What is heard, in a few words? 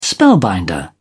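"spellbinder", English, British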